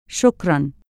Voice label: "shokran", Egyptian Arabic